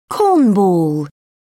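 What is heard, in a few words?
"cornball", British English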